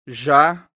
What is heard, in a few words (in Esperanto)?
"jxa", esperanto; leciono1